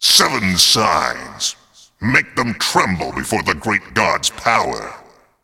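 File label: cinematic voice, Polish; lineage2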